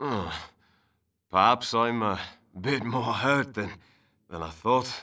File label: Male; baldurs1